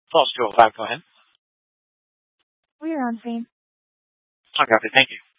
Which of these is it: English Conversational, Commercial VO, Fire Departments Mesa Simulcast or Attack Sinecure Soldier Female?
Fire Departments Mesa Simulcast